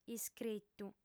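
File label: "iscritu", female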